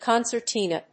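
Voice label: "concertina", English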